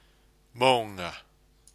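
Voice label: "mounga", Māori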